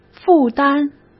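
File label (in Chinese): "负担", Mandarin Chinese; hsk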